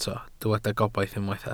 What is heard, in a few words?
"gobaith", Welsh